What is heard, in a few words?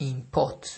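"import", Nigerian Pidgin, male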